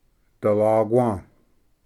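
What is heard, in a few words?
"dalaqua", Cherokee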